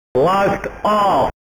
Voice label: Voice Blast Off

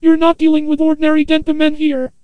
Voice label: Antenna Voice

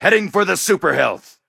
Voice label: Voices Character Male

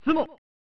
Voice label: "Tsumo!" (Male); pro mahjong kiwame; snes

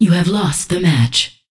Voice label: Voices Announcer Status

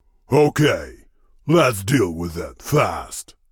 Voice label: Voice Dialog; We Are the Dwarves

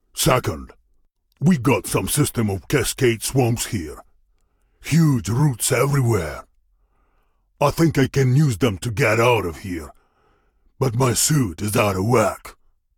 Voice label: Voice Dialog; we are the dwarves gamerip 2016

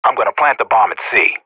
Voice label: Professional Radio bot planting

